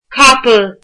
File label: irish horse